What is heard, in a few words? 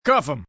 Cuff Em, Police, male, command; order; human voice speaking; Talking